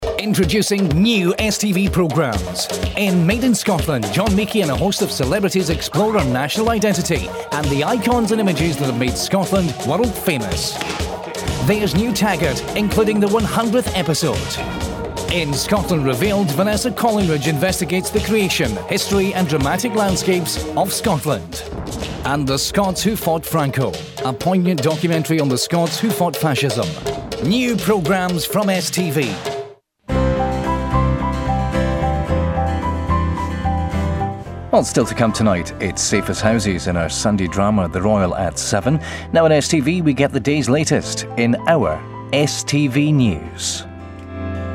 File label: Scottish Accent; foreign languages